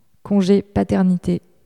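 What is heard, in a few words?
"conge paternite", French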